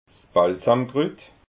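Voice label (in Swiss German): "balsamkrut", Alsatian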